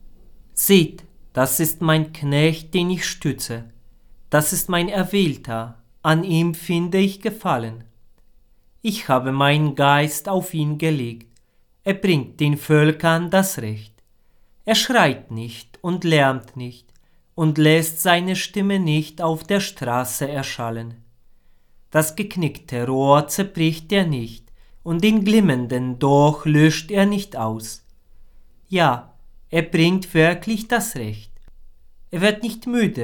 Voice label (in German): Lesung; Taufe des Herrn